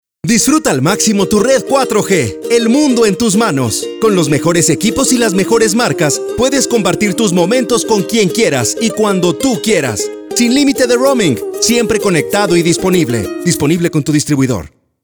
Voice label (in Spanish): Male Spanish Sales